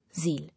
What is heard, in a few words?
"Zeal", English